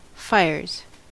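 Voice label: "fires", US English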